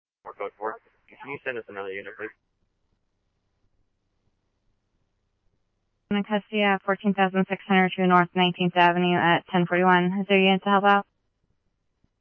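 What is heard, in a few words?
scanner, Phoenix Police Department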